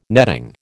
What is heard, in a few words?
"netting", American